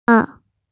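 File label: "pang4", Mandarin Chinese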